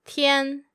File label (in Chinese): "tian1", Chinese